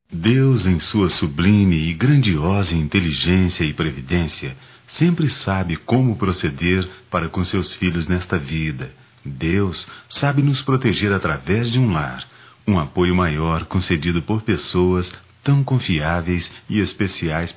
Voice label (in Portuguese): Madrasta, masc; Telemensagens 2017; Dia das Maes